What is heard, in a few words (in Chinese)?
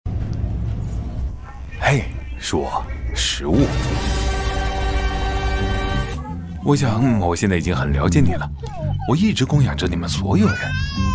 男 【自然质感】 广告, 磁性沉稳 高端大气; Albertsons